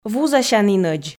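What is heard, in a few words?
"вузасянінӧдз", Komi, female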